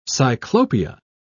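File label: "cyclopia", British English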